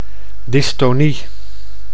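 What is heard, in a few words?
"dystonie", Dutch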